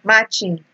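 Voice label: "matching", Nigerian Pidgin, female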